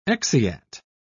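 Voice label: "exeat", British English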